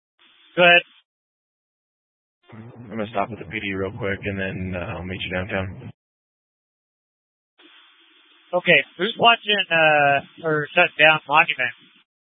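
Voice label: Incident Tac 3, Scanner audio recording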